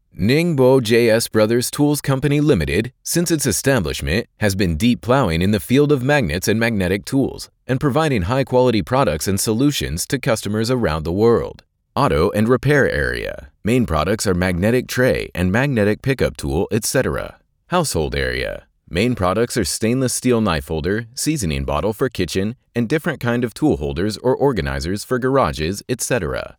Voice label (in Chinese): 汽车零部件公司宣传片